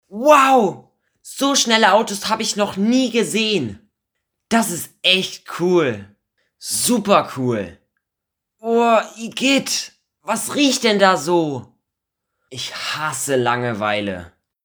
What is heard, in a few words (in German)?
maxi emotion